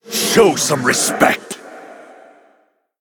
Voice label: Male Ghost Attack

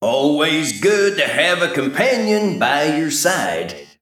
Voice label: Male Mech Recruit Medium Minion

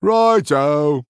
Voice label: Male Human Attack

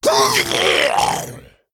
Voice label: Male Murloc Death

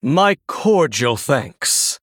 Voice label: Male Human Thanks

